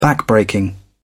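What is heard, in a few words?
"backbreaking", British English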